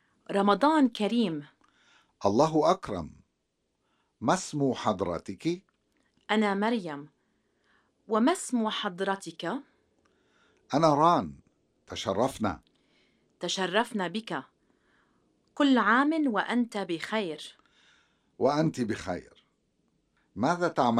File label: Conversation; SALAMAAT